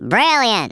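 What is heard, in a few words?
"brilliant", Redneck, male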